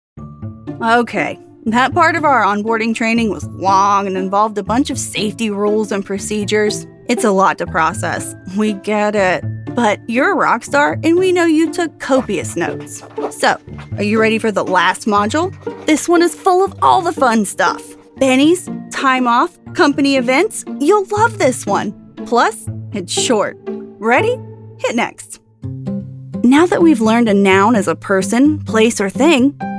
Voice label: E-Learning